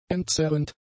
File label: "entzerrend", German; adjectives